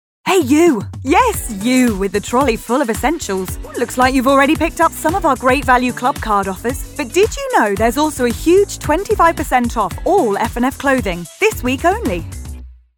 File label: female English commercial; tesco